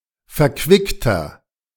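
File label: "verquickter", German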